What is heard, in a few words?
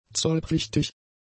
"zollpflichtig", German; adjectives